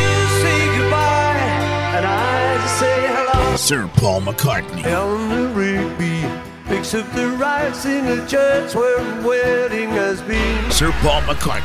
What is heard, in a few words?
concert promo